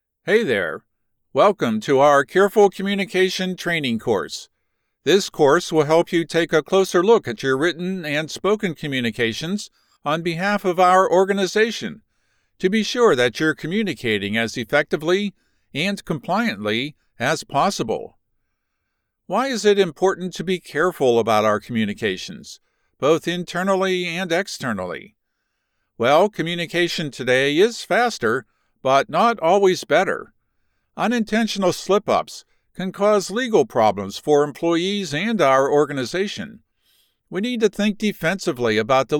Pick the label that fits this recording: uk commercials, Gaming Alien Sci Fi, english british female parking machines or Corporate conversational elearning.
Corporate conversational elearning